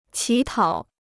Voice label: "乞讨", Chinese

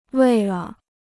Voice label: "为了", Chinese

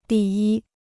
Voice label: "第一", Chinese

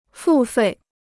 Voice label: "付费", Chinese

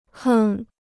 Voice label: "哼", Chinese

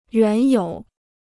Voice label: "原有", Chinese

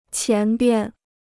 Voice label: "前边", Chinese

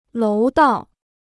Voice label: "楼道", Chinese

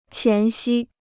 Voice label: "前夕", Chinese

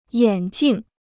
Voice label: "眼镜", Chinese

